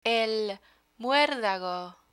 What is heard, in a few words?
"muerdago" Spanish; spanish for kids; learn spanish